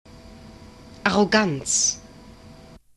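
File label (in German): "arroganz", German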